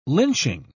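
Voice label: "lynching", English, British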